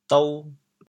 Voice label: "dou2", chaoyang